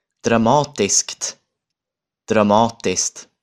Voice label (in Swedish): "dramatiskt", Swedish